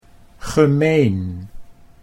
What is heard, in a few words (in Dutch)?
"gemeen", Dutch